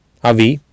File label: "avi", Sanskrit